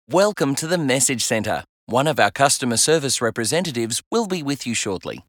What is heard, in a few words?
welcome relaxed male